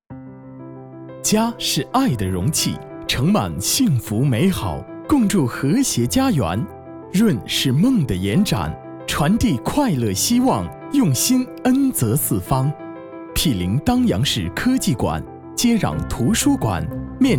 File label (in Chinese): 男 专题 宣传片; 幼儿园宣传片